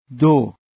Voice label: "do" Farsi